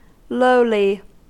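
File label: "lowly", US English; pronunciations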